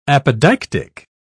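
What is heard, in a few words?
"apodeictic", British English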